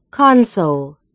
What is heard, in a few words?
console American English pronunciation